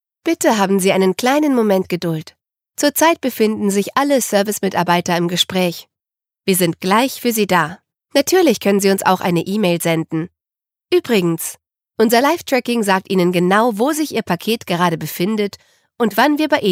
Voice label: female german commercial; ideasonora

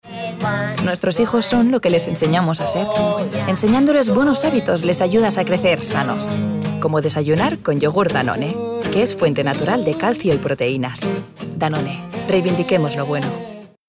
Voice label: female spanish food comercial

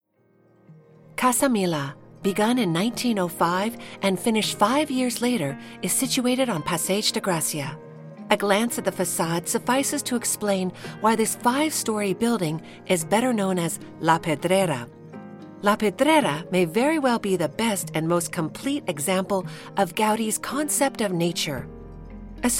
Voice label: female american english museum comercial

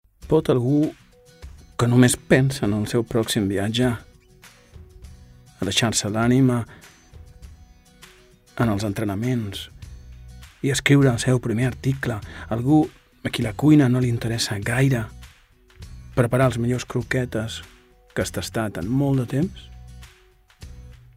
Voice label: male catalan food comercial; ideasonora

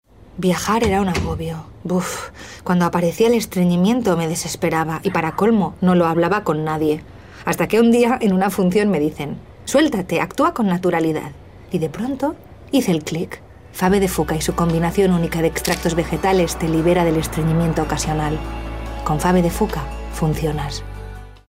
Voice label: female spanish medicine comercial

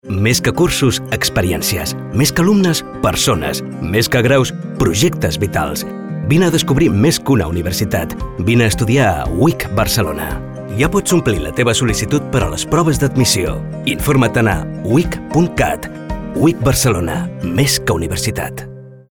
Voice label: male catalan college comercial; ideasonora

